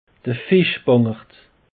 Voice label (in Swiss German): "fischbangert", alsace; peche